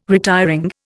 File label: "retiring", Indian